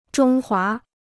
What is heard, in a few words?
"中华", Chinese